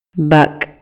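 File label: Maya, male; INALI; MayaYuc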